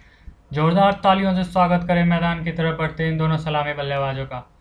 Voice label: hindi line